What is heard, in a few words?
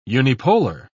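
"unipolar", British English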